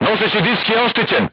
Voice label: voice message horizontal aiming drive damaged, crew tanks serbian